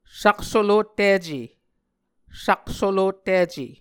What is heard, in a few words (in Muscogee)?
"saksolottice", Muscogee